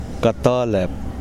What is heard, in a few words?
"north", Kosraean